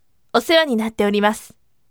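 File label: voice "thank you for your support" Japanese, firm; osewani natteorimasu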